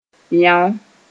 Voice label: "yão", moore

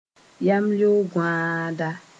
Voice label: "yamleo-gõaada", moore

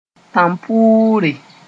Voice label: "tãmpʋʋre", moore

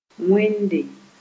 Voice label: "wẽnde", Moore

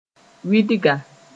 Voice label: "wɩdga", moore

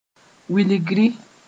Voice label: "wilgri", Moore

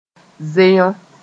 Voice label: "zeyõ", moore

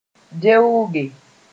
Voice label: "veooge", moore

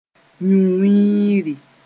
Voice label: "yũ-wĩiri", moore

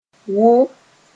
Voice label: "woo", moore

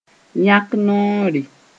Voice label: "yãk noore", moore

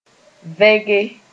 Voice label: "vẽge", moore

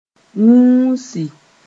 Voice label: "wũusi", moore; to get infected

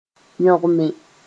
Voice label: "yõgme", moore

